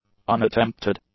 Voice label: "unattempted", English; adjectives

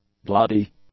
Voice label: "bloody", English; adjectives

